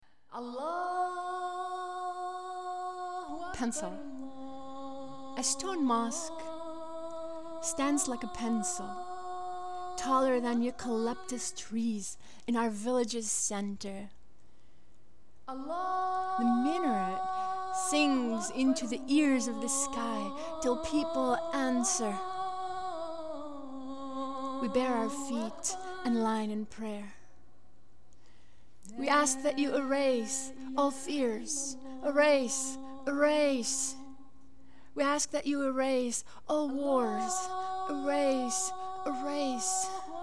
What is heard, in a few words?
English; Pencil